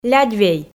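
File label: "лядьвей", Komi, female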